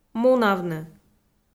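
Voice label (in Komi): "мунавны", Komi, female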